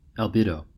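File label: "albedo" US English; pronunciations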